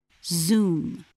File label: "zoom", spelling